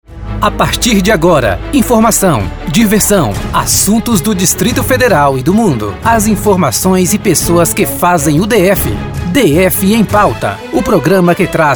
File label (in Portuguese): vinhetas voz abertura; df em pauta